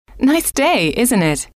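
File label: nice day isn t it, female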